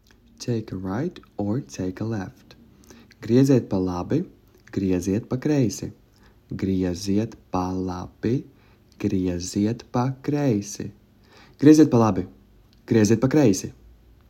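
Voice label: Take a right left, Latvian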